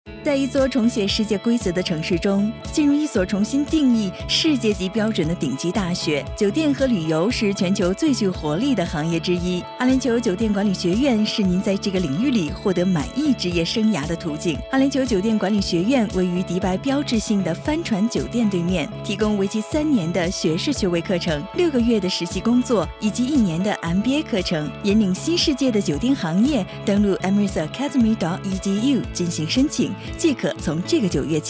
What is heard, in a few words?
Corporate Narrations